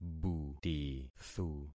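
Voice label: "butithu", concatenated syllables; Affixing experiment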